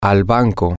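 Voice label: to the bank, Spanish, male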